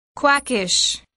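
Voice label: "quackish", English word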